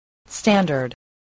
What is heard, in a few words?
"standard", voice